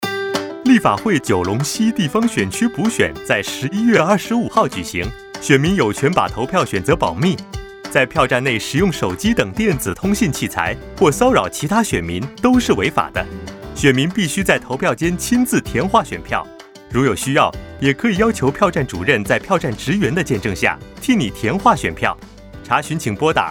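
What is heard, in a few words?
polling procedures Mandarin; 投票程序; 电台广播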